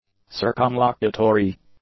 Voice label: "circumlocutory", English; adjectives